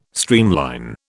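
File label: "streamline", British English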